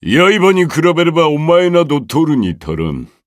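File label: victory, Japanese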